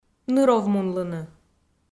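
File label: "ныровмунлыны", Komi, female; audio dict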